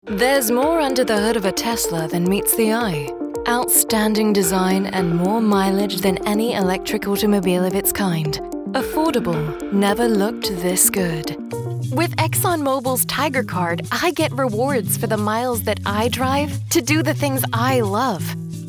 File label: Commercial, Voice Over Actress